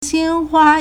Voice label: "xian1hua1", Chinese